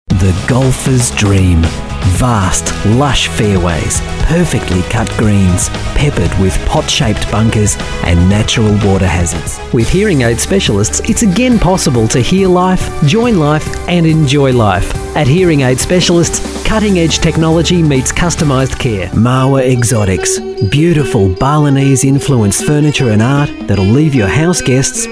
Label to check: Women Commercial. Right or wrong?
Wrong